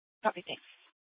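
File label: police department dispatch; Mesa PD; 3 Red Mnt Dispatch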